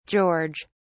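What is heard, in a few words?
"george", British English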